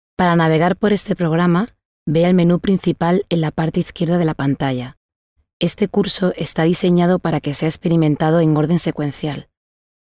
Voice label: Nachvertonung Film Sprecherin Spanisch

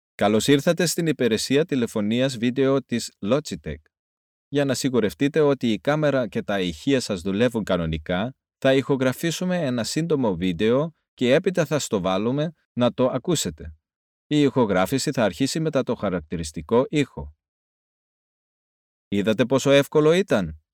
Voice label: Nachvertonung Film Sprecher Griechisch

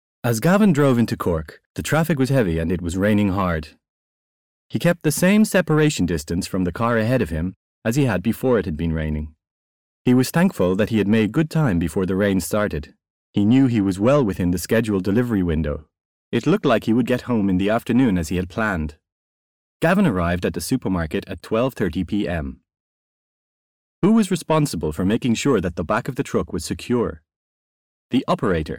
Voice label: Sprecher Englisch; Nachvertonung Film

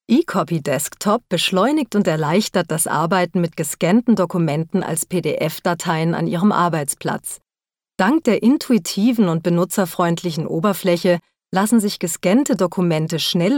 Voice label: Nachvertonung Film Sprecherin Deutsch

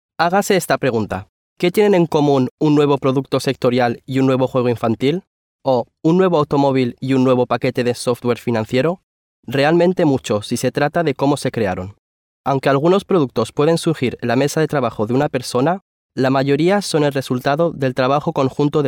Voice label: Nachvertonung Film Sprecher Spanisch

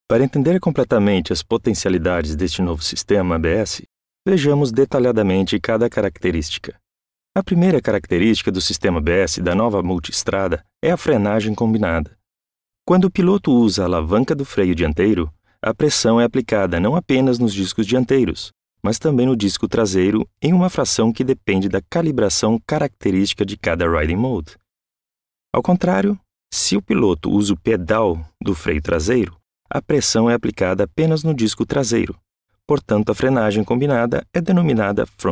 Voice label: Nachvertonung Film Sprecher Brasilianisches Portugiesisch

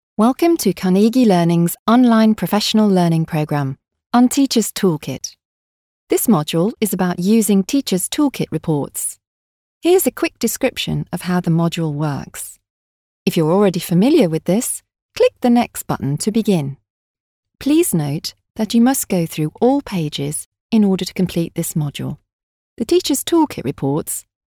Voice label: Sprecherin Englisch UK; Nachvertonung Film

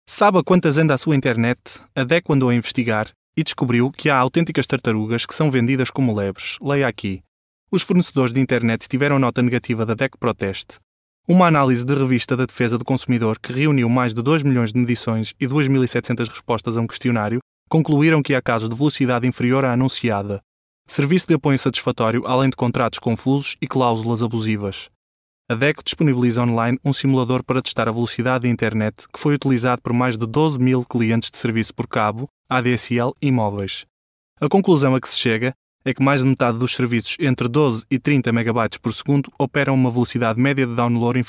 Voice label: Nachvertonung Film Sprecher Portugiesisch

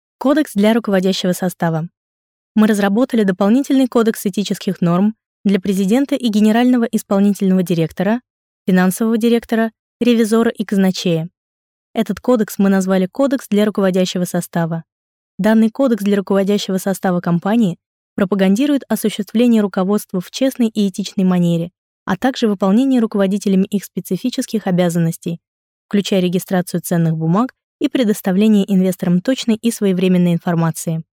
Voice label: Sprecherin Russisch; Nachvertonung Film

